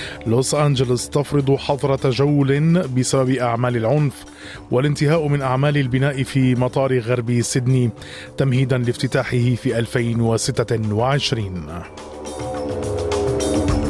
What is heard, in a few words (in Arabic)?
arabic, نشرة أخبار المساء